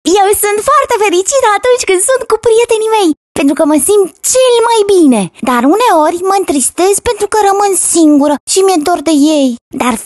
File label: Romanian Cartoon